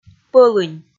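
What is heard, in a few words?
"пӧлынь", Komi, female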